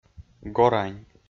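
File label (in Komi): "горань", Komi-Zyrian, male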